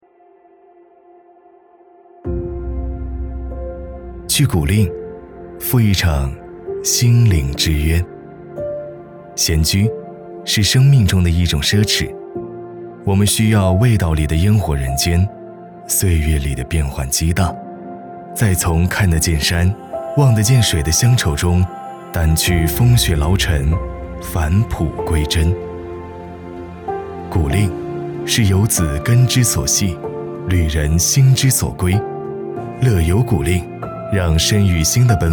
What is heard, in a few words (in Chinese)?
男, 温暖宣传; 古蔺